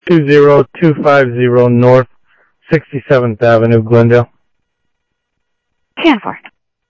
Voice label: Central Sheriff Central, scanner audio

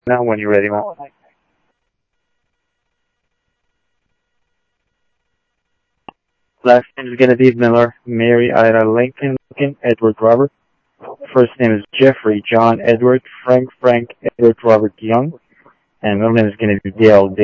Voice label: Info Sheriff Common, scanner audio trunk

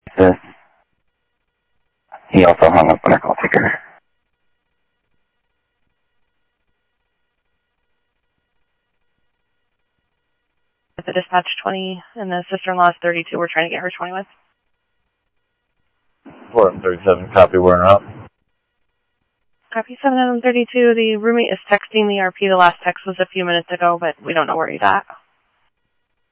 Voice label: South Scottsdale Sheriff, scanner audio

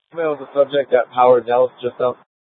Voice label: Dispatch, scanner; Mesa PD; 4 Superstition Dispatch

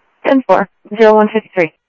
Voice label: scanner audio, Sheriff

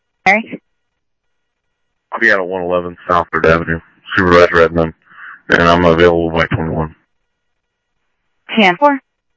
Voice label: scanner audio trunk

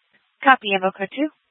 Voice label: Fire Departments, scanner

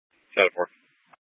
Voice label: Dispatch, scanner

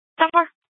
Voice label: Police Dispatch

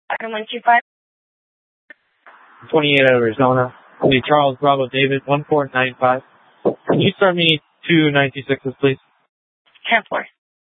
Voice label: Sheriff, scanner

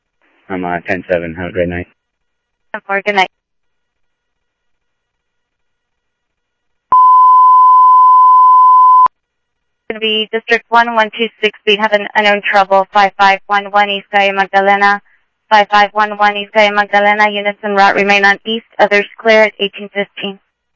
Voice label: Sheriff, scanner audio

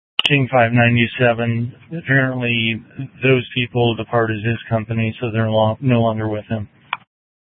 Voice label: Sheriff, scanner P25

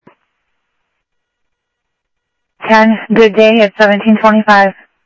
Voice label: scanner audio; Thompson Peak; DPS CI-Ctrl

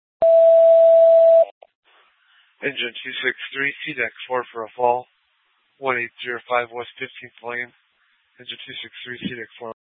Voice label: FD Dpts VHF 156.240 Patch

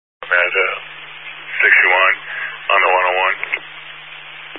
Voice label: Highway Patrol, Phoenix Metro West Area, scanner

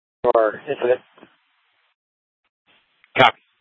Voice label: FD Departments VHF 154.800 Patch, scanner; Mesa - Gilbert - AJ

